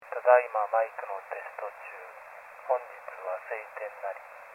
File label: Voice Shaper 50 2700